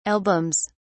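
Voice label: "albums", British English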